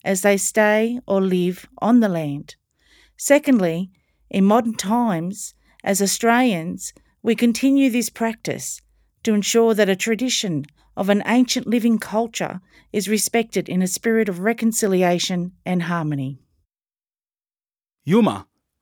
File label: welcome to ngunnawal country, ngunnawal traditional owner male voice